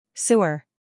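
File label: "scandal", American; sewer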